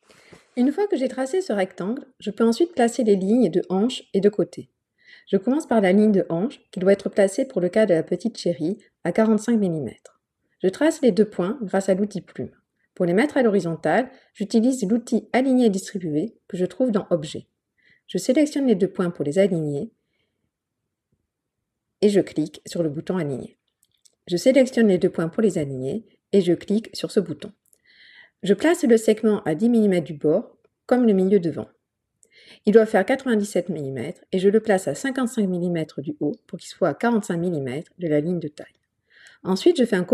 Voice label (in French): Voix off